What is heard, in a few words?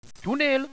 tunnel, radio bot